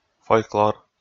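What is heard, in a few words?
"фольклор", Komi, male; kpv audio dict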